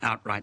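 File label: "outright", Australian English; aʊʔɹaɩʔ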